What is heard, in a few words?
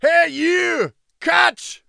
yes attack, en